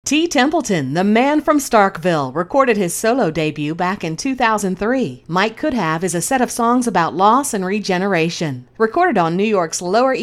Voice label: Announcement; ANALOG Radio